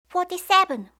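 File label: "forty-seven", English; eng suuji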